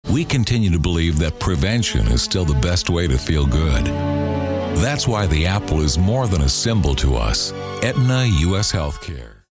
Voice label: caring

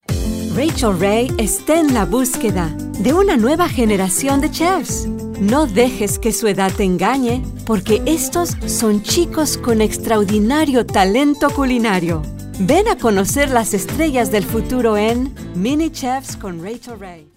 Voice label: imaging

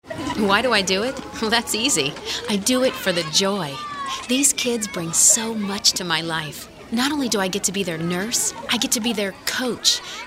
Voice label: real people midlife

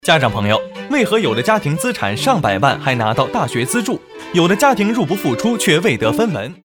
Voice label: Foreign Commercial

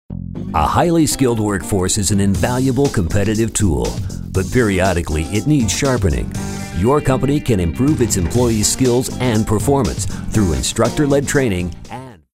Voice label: friendly

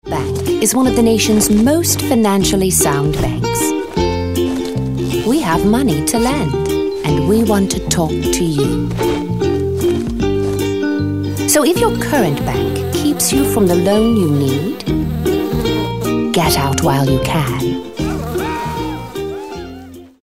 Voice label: british

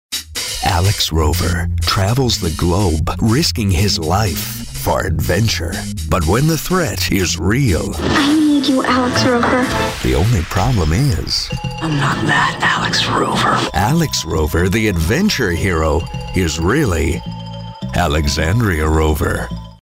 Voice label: movie trailers

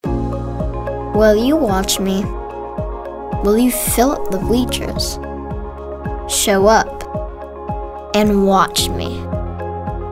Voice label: inspirational

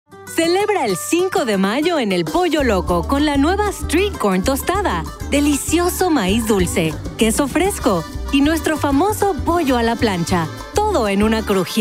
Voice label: Spanish Commercials

